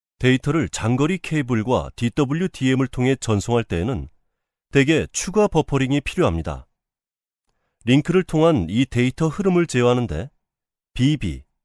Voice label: Korean informative, male korean